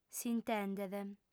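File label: "s'intendet", Sardinian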